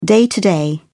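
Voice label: "day-to-day", English, British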